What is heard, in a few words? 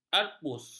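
"arpus", Malay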